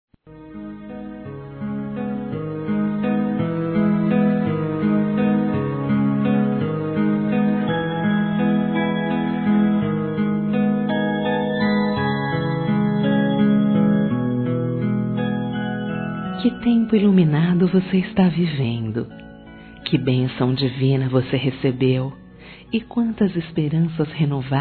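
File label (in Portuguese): PARABÉNS PELA GRAVIDEZ, feminino, Telemensagens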